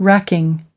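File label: "racking", US English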